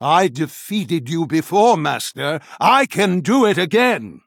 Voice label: Male Human Trigger